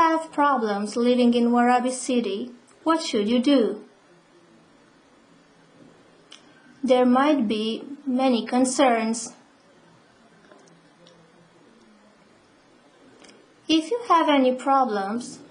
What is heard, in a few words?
consultation counter english